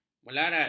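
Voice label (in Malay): "melarat", Malay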